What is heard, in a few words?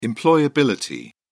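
"employability", British English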